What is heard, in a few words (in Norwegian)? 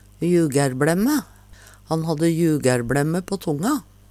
jugærbLemme, Numedalsmål